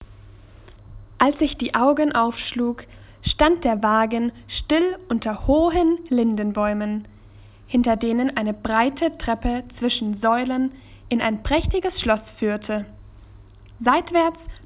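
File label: German narrowband, speech; de-DE NarrowbandModel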